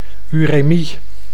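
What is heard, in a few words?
"uremie", Dutch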